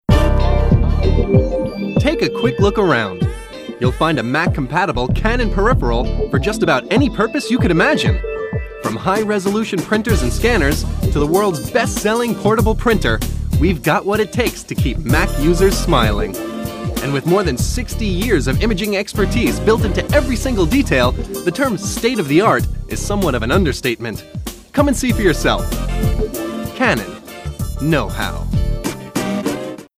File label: male american english camera comercial; ideasonora